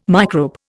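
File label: "microbe", English, Indian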